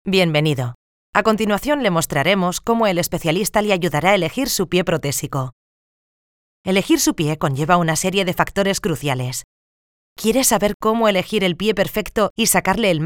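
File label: Spanish, female, corporate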